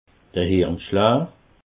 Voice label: "hirnschlaa", alsace

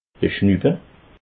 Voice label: "schnuppe", alsace

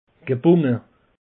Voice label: "gebunge", alsace; peche

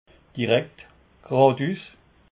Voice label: "direkt", alsace

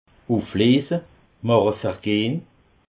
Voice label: "ufleese", alsace

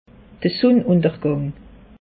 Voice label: "sunneuntergang", alsace; meteo

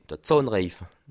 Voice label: "zahnreife", Alsace

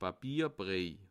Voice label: "papierbrei", alsace

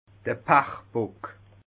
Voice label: "pachbock", alsace

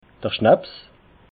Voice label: "schnaps", alsace

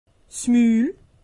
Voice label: "mul", alsace; medecine

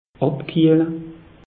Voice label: "abkiehle", alsace; meteo

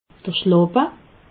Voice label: "schlappe", alsace

